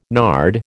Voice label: "nard", American

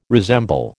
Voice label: "resemble", American

